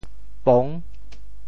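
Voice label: "pong6", Teochew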